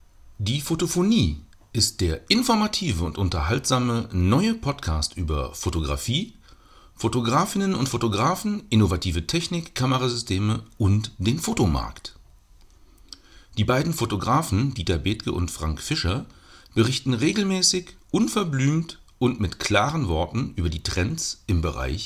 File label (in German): No-Name dynamisch; mikrofonierung; fotophonie 046